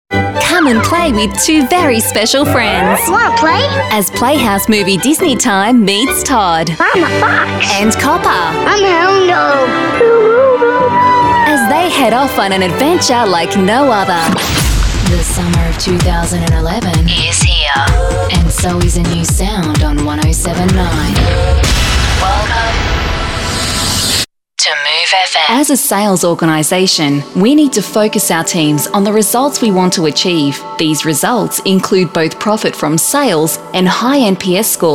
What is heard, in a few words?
female talent retail